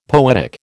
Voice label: "poetic", American